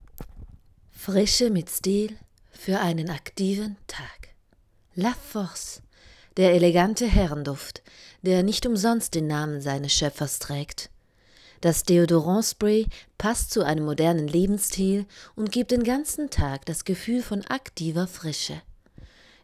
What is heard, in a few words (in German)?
Werbung sinnlich